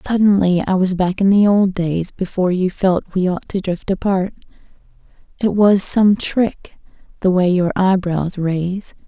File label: read speech